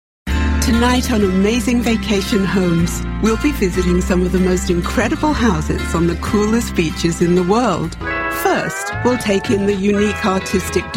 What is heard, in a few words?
Voice Talent Narration